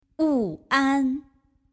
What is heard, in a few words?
"Wuan", Chinese